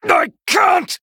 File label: Male Night Elf Death; Kurtrus